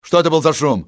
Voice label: what was noise, russian soldier; enemy; XBOX